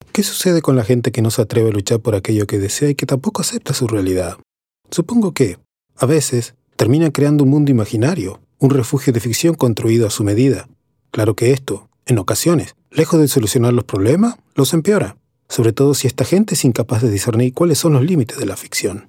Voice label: Spanish